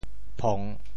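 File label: "phong3", Teochew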